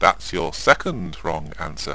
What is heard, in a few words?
chat